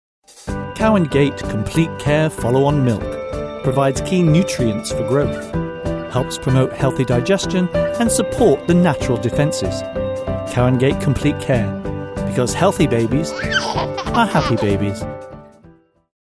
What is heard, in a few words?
advert; cow gate